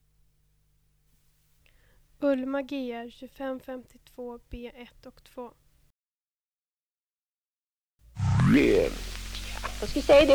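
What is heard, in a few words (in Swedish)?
Mattmar Jämtland, dialekter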